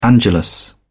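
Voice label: "angelus", British English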